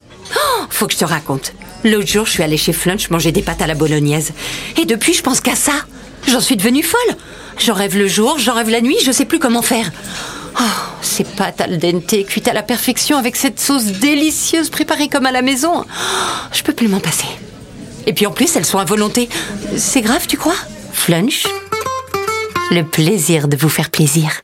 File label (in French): pub comedie; flunch bolognaise